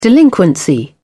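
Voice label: "delinquency", British English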